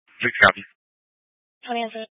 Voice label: Fire Dispatch